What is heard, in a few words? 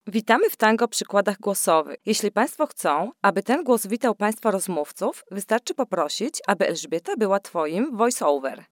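Polish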